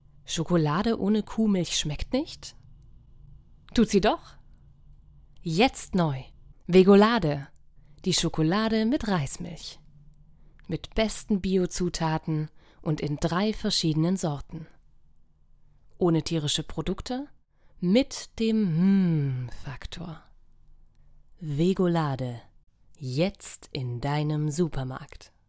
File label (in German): Werbung